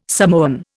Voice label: "Samoan", Indian